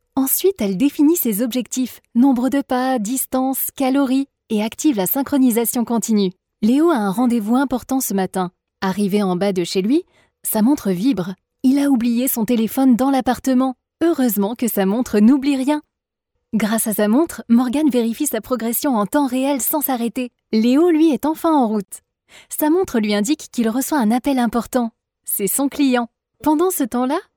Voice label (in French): narration vidéo explicatif dynamique